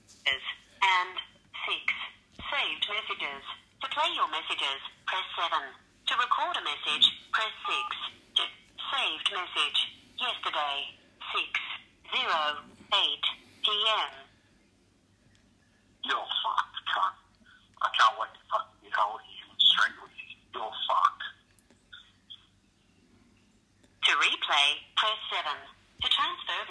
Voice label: Threat Voicemail